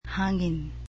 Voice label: "windy", cebuano